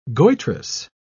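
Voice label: "goitrous", British English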